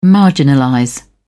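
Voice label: "marginalize", English, British English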